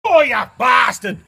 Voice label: scared dad